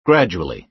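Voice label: "gradually", American English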